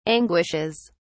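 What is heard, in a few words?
"anguishes", British English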